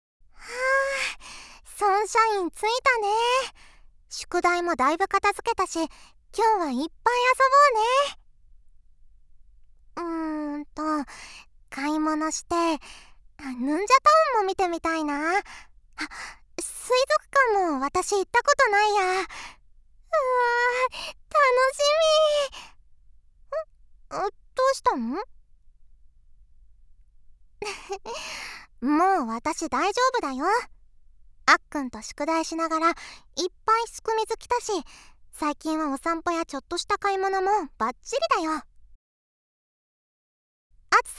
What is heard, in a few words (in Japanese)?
声のみ ノイズ除去なし